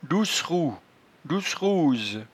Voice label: "doucerou", patois jura